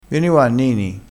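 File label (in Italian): "nini", dialettale crespinese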